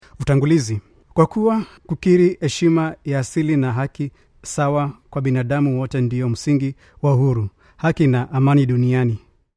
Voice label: Swahili

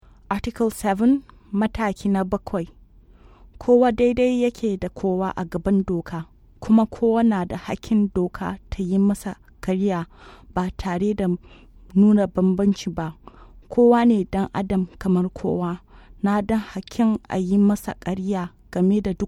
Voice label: Hausa

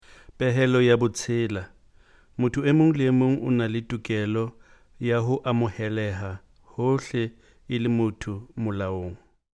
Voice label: Sesotho

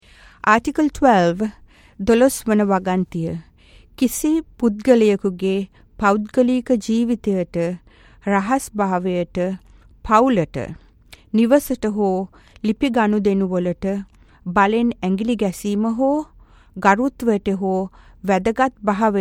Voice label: Sinhalese

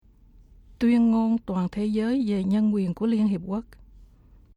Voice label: Vietnamese

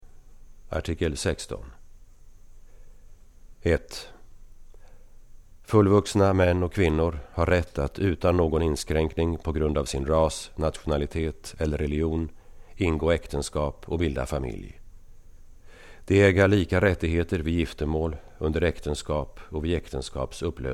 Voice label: Swedish

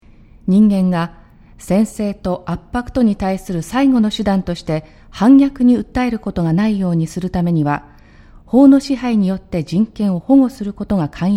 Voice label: Japanese

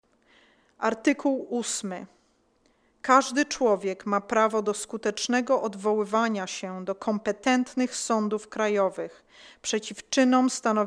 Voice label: Polish

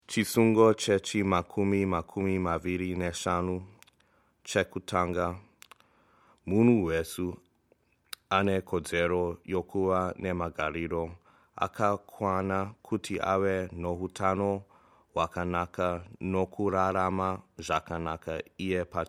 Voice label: Shona

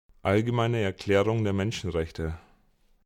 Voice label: German title

